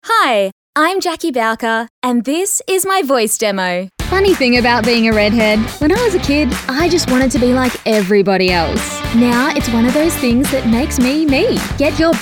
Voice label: Voiceover